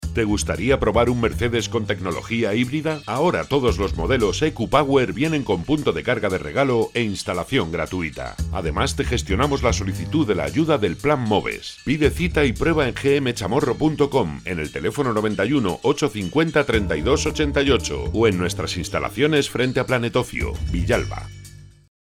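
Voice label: spanish automotive, Spain; voice actor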